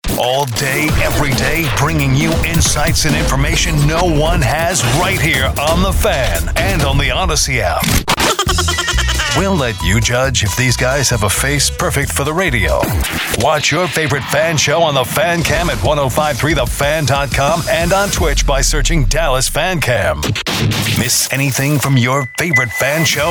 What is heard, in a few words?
imaging; THE FAN